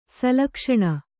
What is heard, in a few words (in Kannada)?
"ಶ್ಲಕ್ಷ್ಣ", Kannada